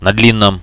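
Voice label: on the long one, radio, Russian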